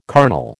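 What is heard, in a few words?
"carnal", American English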